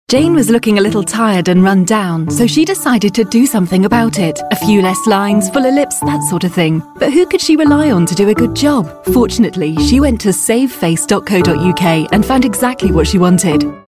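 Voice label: British commercial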